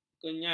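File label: "kenyal", Malay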